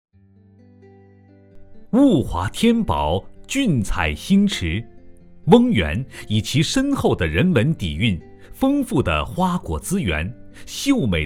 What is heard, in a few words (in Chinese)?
男 旅游文化